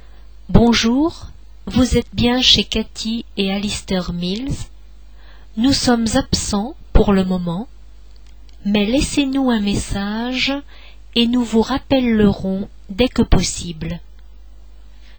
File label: message, French